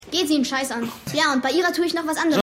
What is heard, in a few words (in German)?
Junge; The Unusuals